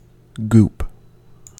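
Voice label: "goop", US English; pronunciations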